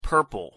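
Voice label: "purple", English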